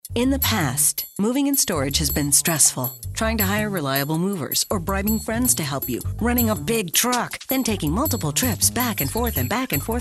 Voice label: animated